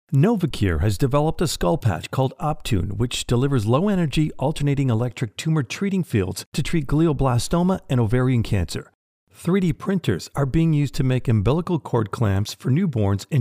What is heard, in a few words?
Medical Narration